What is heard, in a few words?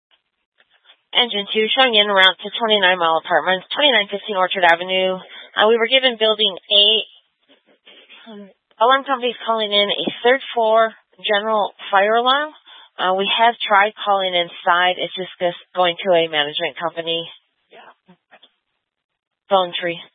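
Fire Dispatch, Scanner audio recording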